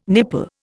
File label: "nipple", Indian